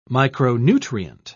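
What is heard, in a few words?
"micronutrient", British English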